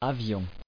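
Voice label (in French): "avion" French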